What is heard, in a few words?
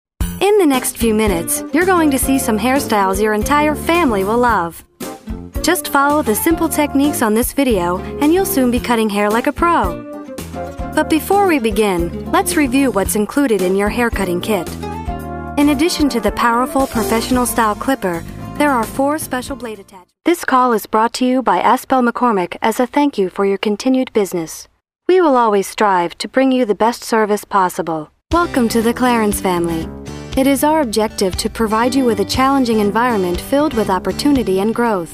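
English American female voice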